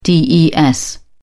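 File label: "des", British English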